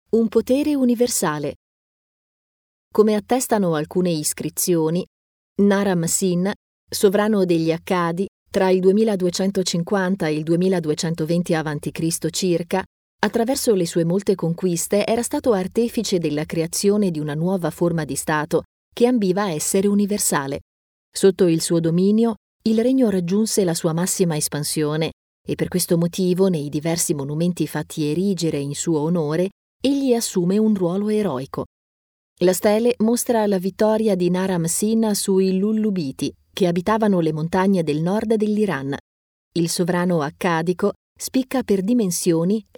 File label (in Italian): audioguida